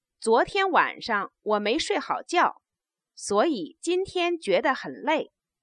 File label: stative, Chinese